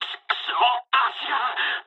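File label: Japanese damaged pilot mood low; War Thunder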